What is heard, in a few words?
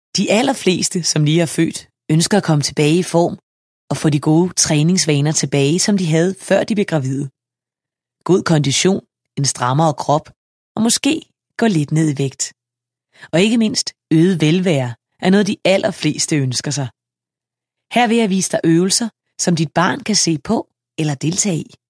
dk info